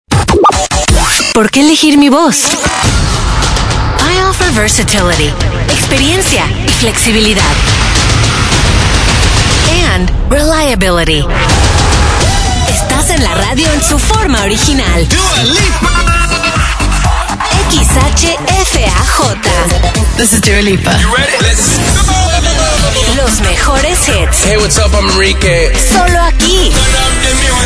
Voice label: spanish mexican movie trailers

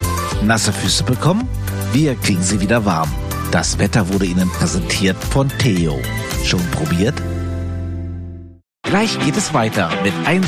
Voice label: german promos

